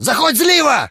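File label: talk, Ukrainian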